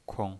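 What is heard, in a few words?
"kong", neutral tone, pinyin voice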